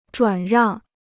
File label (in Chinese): "转让", Chinese